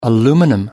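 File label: "aluminum", British English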